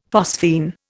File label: "phosphene", Indian; Dictionary